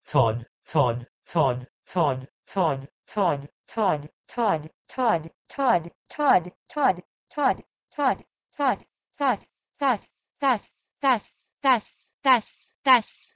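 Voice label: Proto-Indo-European tod to Lithuanian tas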